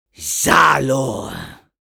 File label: Medic negative vocalization, fr